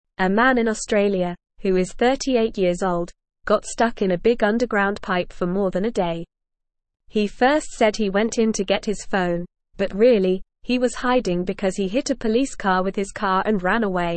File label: English Newsroom Beginner NORMAL Reading; Man stuck in pipe after hitting police car